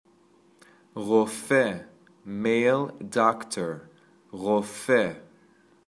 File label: Male Doctor